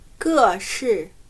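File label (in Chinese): "各式" Mandarin Chinese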